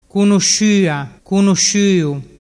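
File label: "cunusciuasciuu", Ligurian dialect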